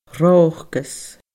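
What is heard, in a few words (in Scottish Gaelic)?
"ròcas", Scottish Gaelic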